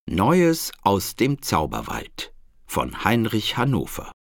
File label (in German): Ansage; Kinder; Heinreich Hannover; Neues aus dem Zauberwald